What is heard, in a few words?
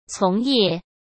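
"从业", Mandarin Chinese; chinese-flashcards; hsk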